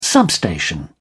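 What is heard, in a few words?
"substation", British English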